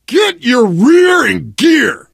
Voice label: emphatic go, survivor voice